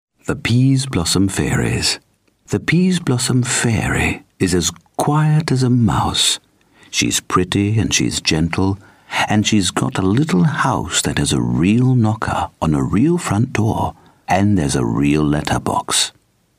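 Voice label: British English; uk englisch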